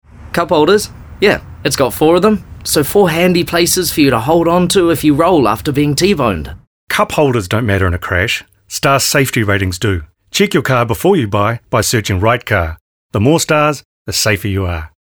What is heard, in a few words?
radio ad; 1 star reality cupholders